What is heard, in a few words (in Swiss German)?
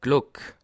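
"glock", Bas Rhin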